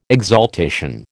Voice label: "exaltation", American